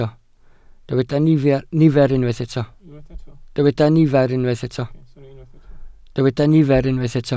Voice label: "nifer", Welsh